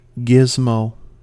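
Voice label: "gizmo", US English; pronunciations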